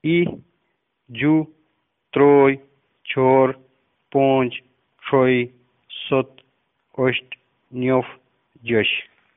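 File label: khowar numbers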